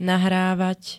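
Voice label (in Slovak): "nahrávať", Slovak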